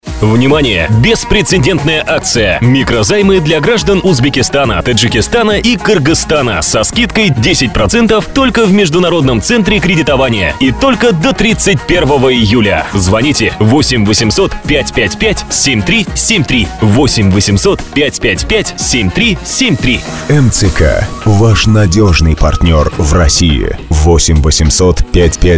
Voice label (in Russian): Реклама